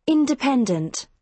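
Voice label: "independent", British English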